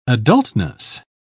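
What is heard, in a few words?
"adultness", British English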